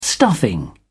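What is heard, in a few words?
"stuffing", English, uk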